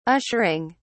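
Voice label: "ushering", British English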